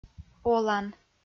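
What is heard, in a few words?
"олан" Komi-Zyrian, female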